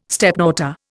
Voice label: "stepdaughter", Indian

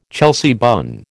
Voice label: "Chelsea bun", American

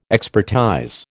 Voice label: "expertize", American

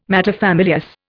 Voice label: "materfamilias", Indian

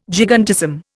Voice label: "gigantism", Indian

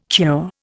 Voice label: "cure", Indian

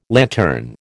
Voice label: "lantern", American

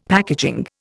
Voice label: "packaging", Indian; Dictionary

